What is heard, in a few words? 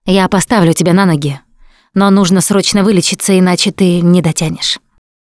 revive critical friend, Russian; Producer